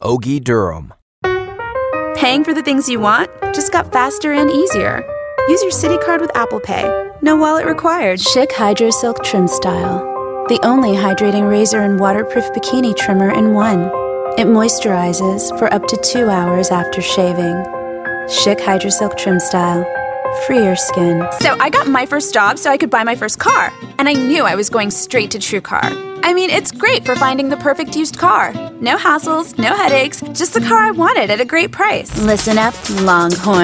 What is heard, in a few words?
Commercial; Female voices